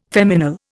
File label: "feminal", Indian